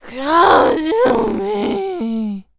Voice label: Zombies Waitress Taunt; zps